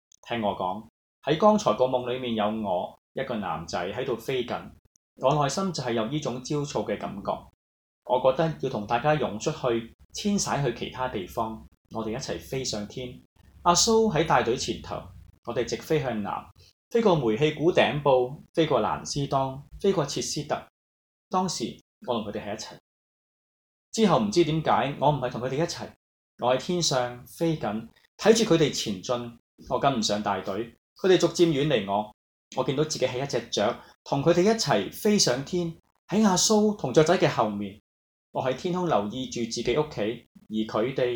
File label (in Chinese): 男生獨白; 《雀仔》; Naomi Wallace